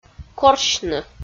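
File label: "корсьны" Komi, female; audio dict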